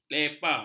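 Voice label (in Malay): "lepa", Malay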